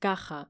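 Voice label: "caja" Spanish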